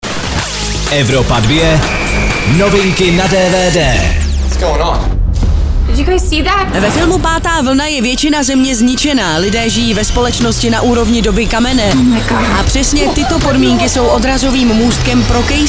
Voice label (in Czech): DVD NEWS; evropa2; Pátá vlna